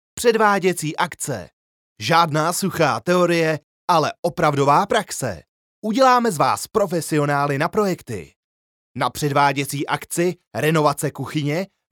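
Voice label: czech male commercial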